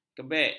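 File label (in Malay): "kebek", Malay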